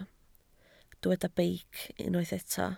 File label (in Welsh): "beic", Welsh